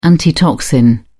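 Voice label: "antitoxin", British English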